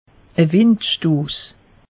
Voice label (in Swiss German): "wind stoss", alsace; meteo